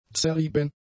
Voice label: "zerrieben", German; adjectives